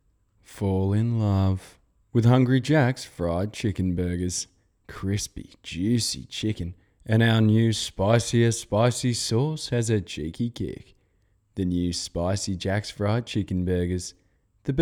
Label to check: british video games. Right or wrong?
Wrong